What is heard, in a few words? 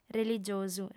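"religiosu", female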